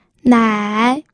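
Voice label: "nai", third tone, pinyin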